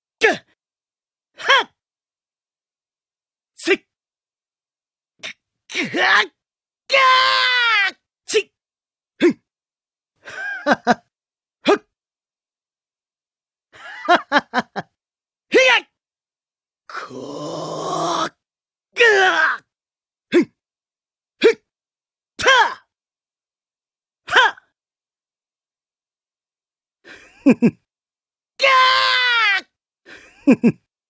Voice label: male voice; nobuyuki hiyama; xenoverse